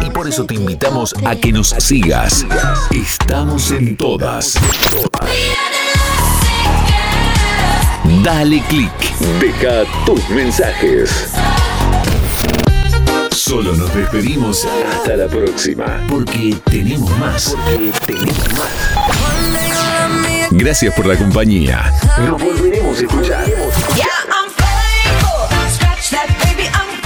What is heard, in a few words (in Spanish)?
Arte programa Pop, Arte Pop electro, Voz masculina; Para vestir tu programa; Arte Pop electro pack 4; 10 spots personalizables